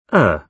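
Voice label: "her", British English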